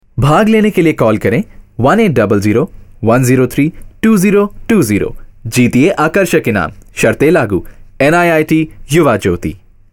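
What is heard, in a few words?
male voice over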